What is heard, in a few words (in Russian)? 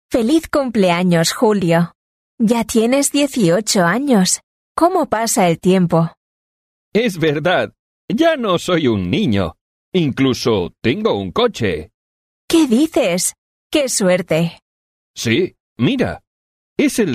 urok dialog